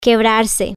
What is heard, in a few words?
break, spanish